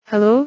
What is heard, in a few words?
Female prototype 'hello'